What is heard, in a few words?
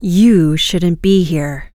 vocal dialogue you shouldnt be here female human; rogue